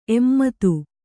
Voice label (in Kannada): "ಎಮ್ಮದು", Kannada